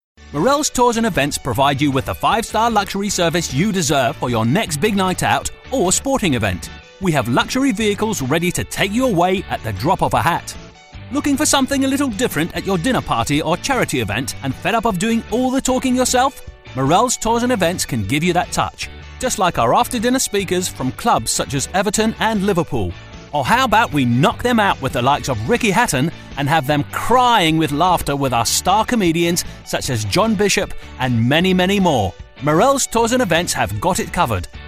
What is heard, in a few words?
corporate